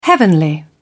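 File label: "heavenly", British English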